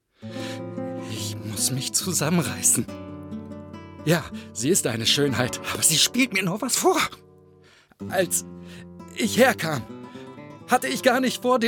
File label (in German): Synchron misstrauisch verliebt Anime; LOFT VOICES